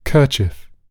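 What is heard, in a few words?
"kerchief", British English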